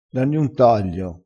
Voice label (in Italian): "danni un taglio", dialettale